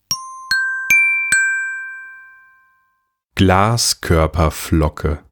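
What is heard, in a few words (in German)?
"Glaskoerperflocke", German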